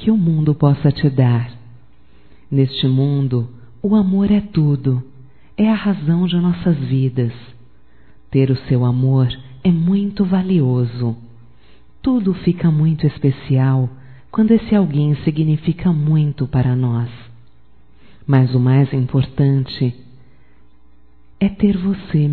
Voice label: ANIV romântico (F); Aniversarios; Telemensagens; Telemensagens 2017

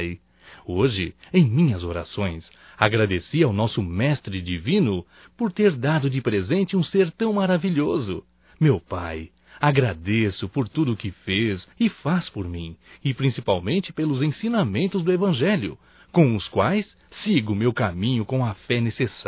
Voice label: NATAL PAI (M); masc; Telemensagens 2017; Natal & Ano Novo

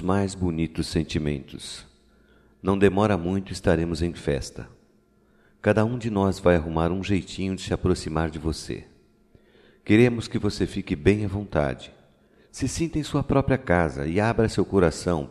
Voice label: BOAS VINDAS (PARA PESSOA DESCONHECIDA) - M

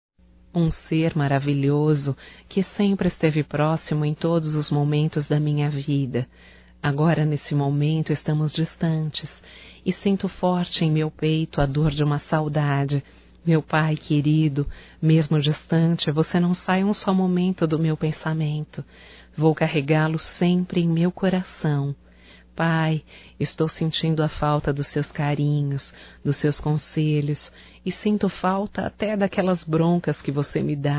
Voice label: SAUDADES PAI, Telemensagens, Só Voz, fem

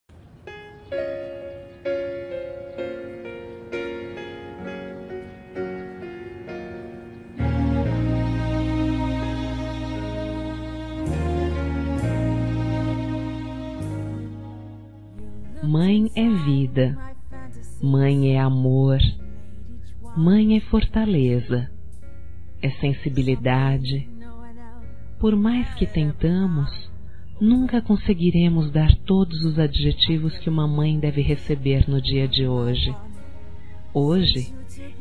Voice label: Homenagem Geral F, Telemensagens, Dia das Mães; Telemensagens 2017

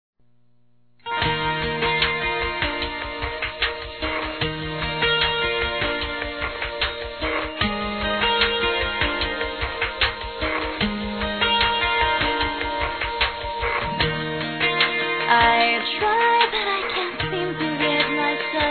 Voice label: Aniversário Chefe Plural Masculino, Telemensagens; Empresarial; Telemensagens 2017

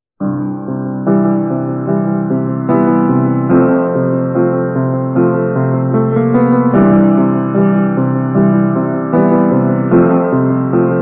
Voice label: Você está Grávida Masculino; Telemensagens; Telemensagens 2017

